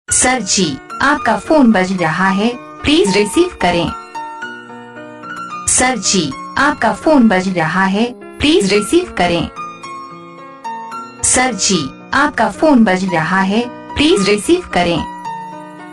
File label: please pickup the phone ringtone